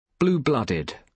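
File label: "blue blooded", British English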